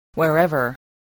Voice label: "wherever", spelling